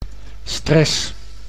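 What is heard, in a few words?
"stress", Dutch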